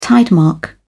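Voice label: "tidemark", British English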